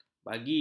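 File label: "bagi", Malay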